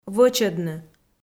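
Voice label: "вӧчӧдны", Komi, female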